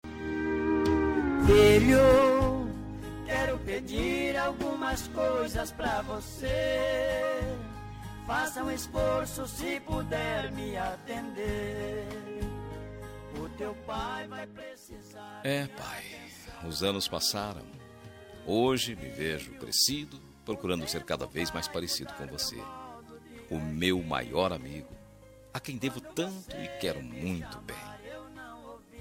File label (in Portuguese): masc, Dia dos Pais – Voz Masculina